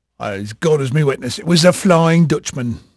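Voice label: English male, voice; Pirates Of The Caribbean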